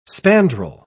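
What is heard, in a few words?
"spandrel", English, British English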